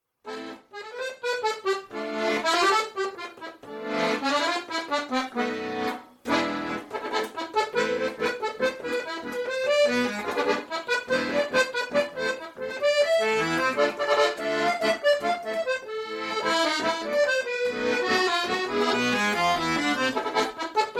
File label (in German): Lesung, Radio Stream; OVZ